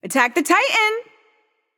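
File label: vox attack male; smite; awilix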